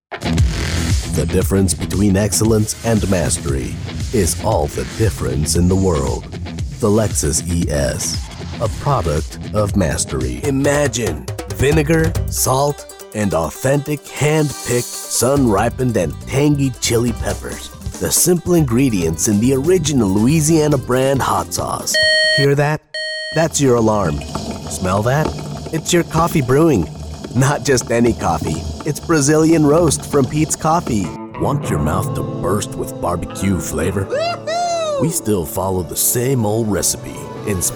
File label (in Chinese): 美式英语男声